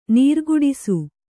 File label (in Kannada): "ನೀರುಕುಡಿಸು", kannada